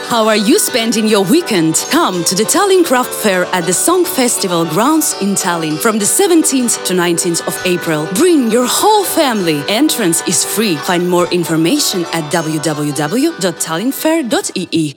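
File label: English, female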